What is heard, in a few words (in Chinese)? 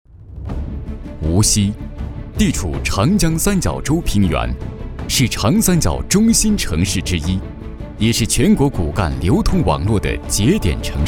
男, 专题, 城市宣传片; 无锡